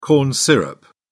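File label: "corn syrup", British English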